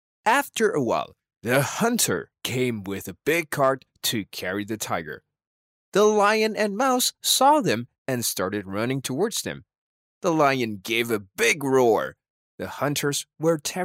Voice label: English Character

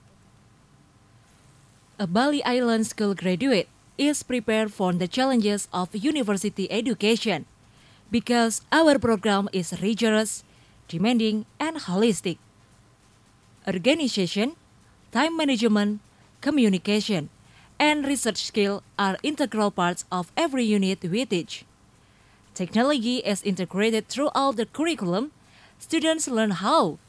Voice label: FORMAL ENGLISH; SUMMER VOICES

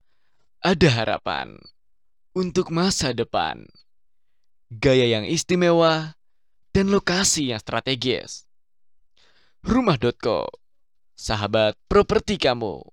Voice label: Casual Indonesia

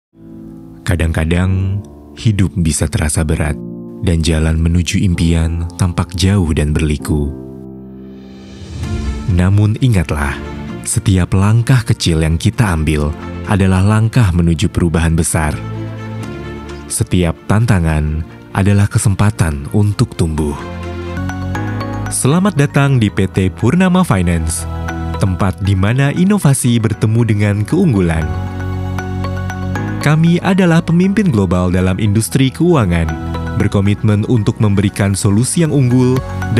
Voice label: FORMAL INDONESIA, voiceover

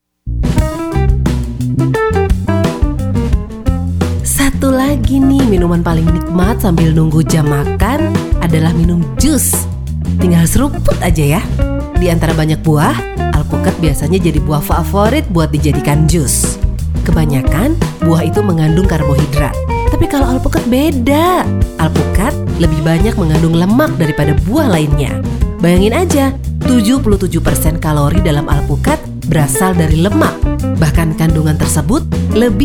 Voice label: INDONESIA CASUAL

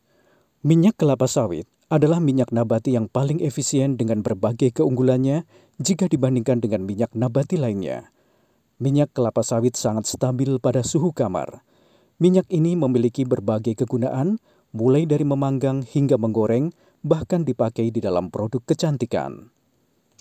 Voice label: INDONESIA FORMAL

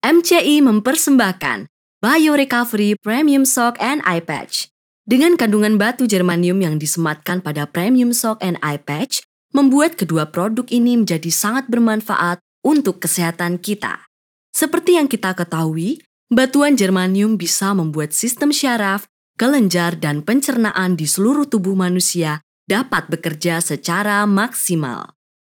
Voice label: INDONESIA FORMAL

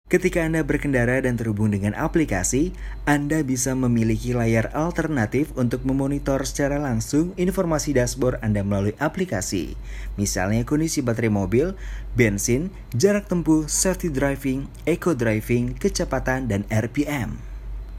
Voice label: CASUAL INDONESIA

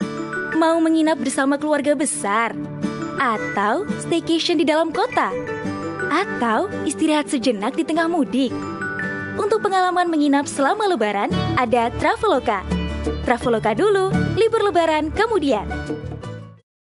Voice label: INDONESIA CASUAL

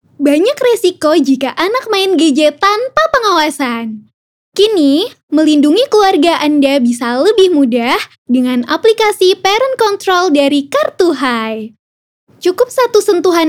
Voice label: CASUAL INDO

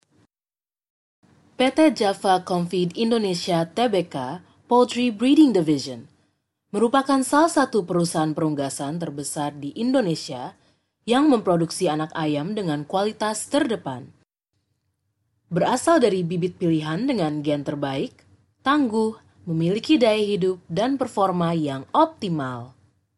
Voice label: Indonesia Formal

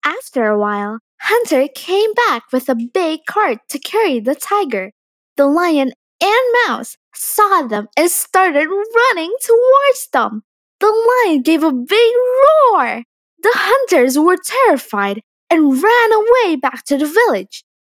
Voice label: ENGLISH CHARACTER

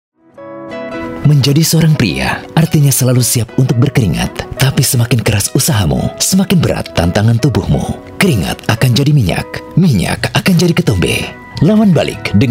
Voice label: CASUAL INDO

